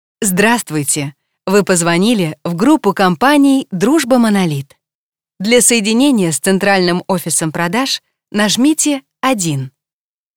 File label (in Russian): Zapis ATS; Запись АТС; музыка не накладывалась; Дружба-Монолит; Диктор записывался уже известный представителям фирмы, музыка не накладывалась.